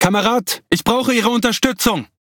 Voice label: Speech Quick Commands Need Support Ship, DE; World Of Warships

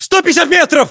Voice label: threat distance, ru; localized english

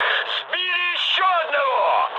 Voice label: player killed enemy, mood med, speech ru

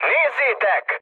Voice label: enemy detected, speech hun; War Thunder

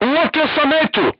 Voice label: voice message target lock, crew tanks serbian

